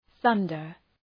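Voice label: "thunder", English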